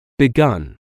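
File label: "begun", English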